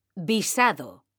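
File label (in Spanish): "visado", Spanish, female; mekanta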